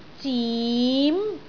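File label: "zim2", Cantonese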